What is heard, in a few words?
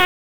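Police Northeast District Dispatch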